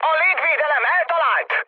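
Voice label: Hungarian damaged aa mood med, speech; War Thunder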